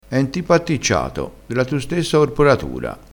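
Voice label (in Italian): "atticciato", dialettale crespinese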